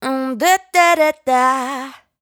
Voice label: "Jazz", adlib, Dry Vocals; Raw Vocals; Adlibs